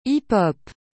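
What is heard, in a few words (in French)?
"hip hop", French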